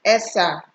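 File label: "esa", Nigerian Pidgin, female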